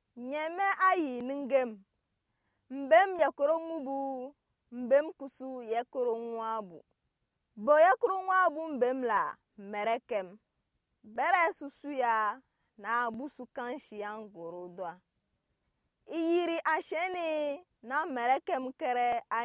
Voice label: Anufo; Conflit invisible; The Spirit World